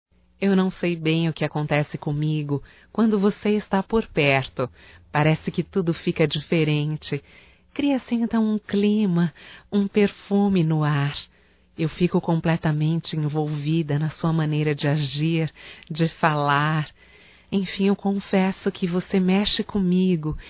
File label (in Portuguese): ROMANTICA(F), Românticas, fem